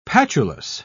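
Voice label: "patulous", British English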